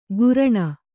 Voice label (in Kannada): "ಘೂರ್ಣ", Kannada